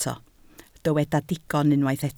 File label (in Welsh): "digon", Welsh